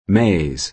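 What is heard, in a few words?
"maize corn", English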